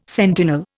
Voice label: "sentinel", Indian

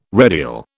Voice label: "redial", British

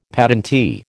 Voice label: "patentee", American

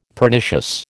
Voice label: "Pernicious", American

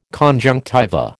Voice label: "conjunctiva", American

